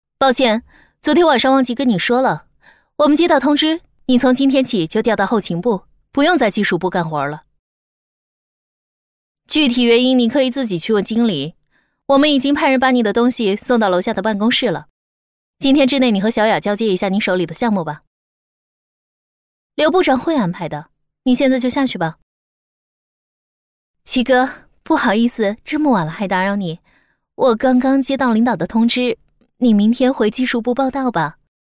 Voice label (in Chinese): 女 MG人物 女主管